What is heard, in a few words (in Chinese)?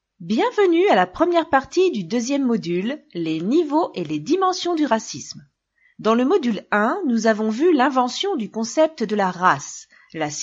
法语女, 外语, 小语种